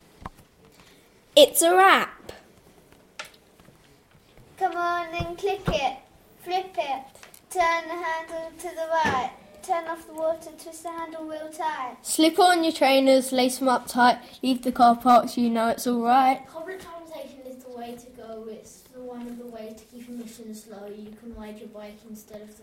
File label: yr6 poetry rap